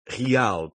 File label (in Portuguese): "real", European Portuguese